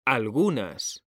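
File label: "algunas", Spanish, male; mekanta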